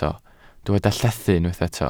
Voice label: "llethu", Welsh

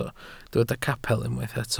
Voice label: "capel", Welsh

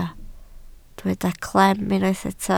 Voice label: "clem", Welsh

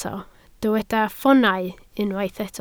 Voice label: "ffoonau", Welsh

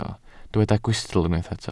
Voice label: "gwystl", Welsh

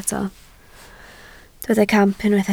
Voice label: "camp", Welsh

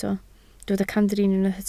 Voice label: "camdrin", Welsh

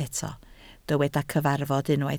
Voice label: "cyfarfod", Welsh

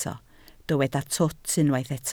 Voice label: "twt", Welsh

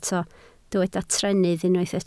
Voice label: "trennydd", Welsh

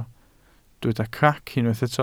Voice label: "crac", welsh

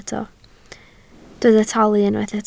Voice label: "talu", Welsh

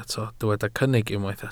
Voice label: "cynnig", welsh

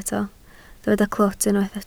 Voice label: "clwt", Welsh

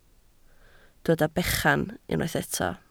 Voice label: "bechan", Welsh

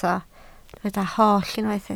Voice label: "holl", Welsh

